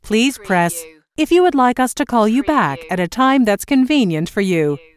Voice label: US female phone prompt Please press if you would like us to call you back at a time